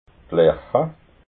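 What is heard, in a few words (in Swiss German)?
"lercha", Alsatian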